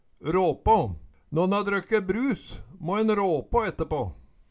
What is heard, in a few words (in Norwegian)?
rapa, Numedalsmål; Nån har drøkke brus, må en råpå etterpå